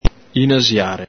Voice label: "inaxiàre", Venetian

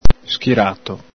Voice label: "schirato", Veneto

